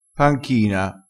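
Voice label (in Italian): "panchina", dialettale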